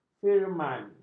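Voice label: "firman", Malay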